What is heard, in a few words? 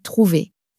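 "trouver", French, female; flashcards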